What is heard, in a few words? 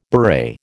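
"beret", American English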